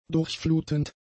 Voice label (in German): "durchflutend", German; adjectives